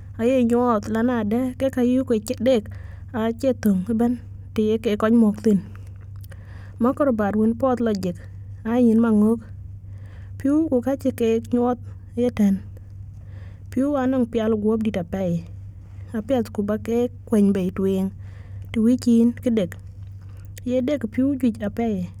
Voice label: healthy drinks dinka